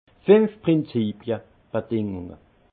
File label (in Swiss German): "prinzipie", alsace; vin; cinq principes